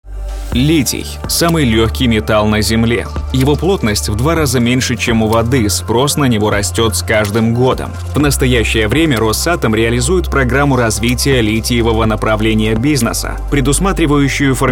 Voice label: RUS